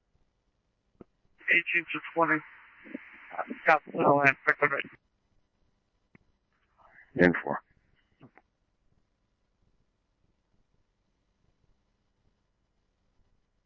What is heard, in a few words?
scanner, Scottsdale Police; SPD - PD2; RWC; Thompson Peak High